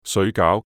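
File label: Cantonese "水餃"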